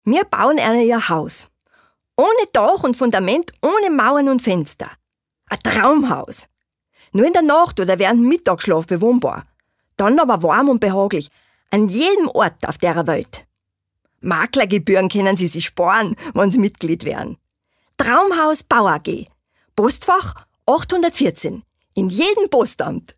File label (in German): Dialekt Oesterreichisch